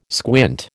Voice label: "squint", American